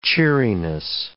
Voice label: "cheeriness", English